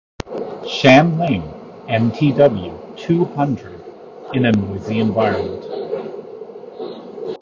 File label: Noisy Environment; Shanling MTW200